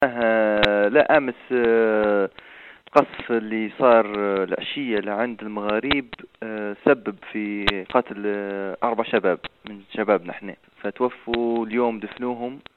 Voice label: arabic; yefren; yesterday afternoon until sunset bombardments continued 4 killed

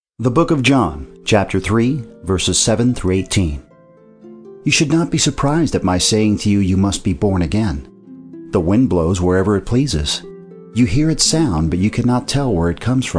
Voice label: bible narration

